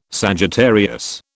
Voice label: "Sagittarius", British